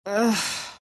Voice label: anger; queenofpain